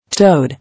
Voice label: "Toad", English

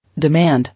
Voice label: "Demand", English

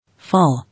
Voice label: "Fall", English

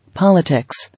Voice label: "Politics", English

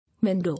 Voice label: "Window", English

